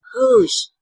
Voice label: "guëzh", tlacolula; pueblo